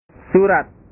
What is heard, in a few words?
"surat", bahasa